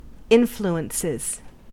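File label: "influences", US English; pronunciations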